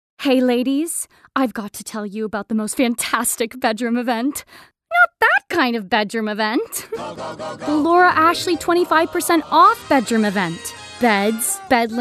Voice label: Commercial Reel